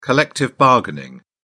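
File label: "collective bargaining", British English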